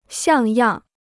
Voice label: "像样", Chinese